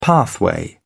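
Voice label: "pathway", British English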